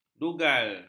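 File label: "dugal", Malay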